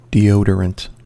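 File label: "deodorant", American English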